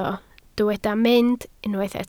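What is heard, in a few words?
"mynd" Welsh, spoken word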